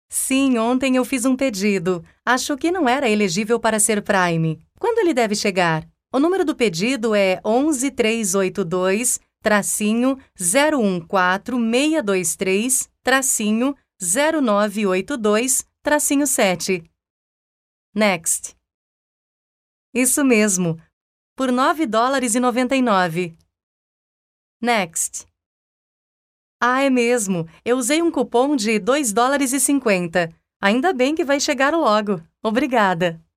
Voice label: brazilian portuguese voice brazilian portuguese